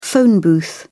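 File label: "phone booth", British English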